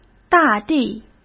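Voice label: "大地", Mandarin Chinese; hsk; chinese-flashcards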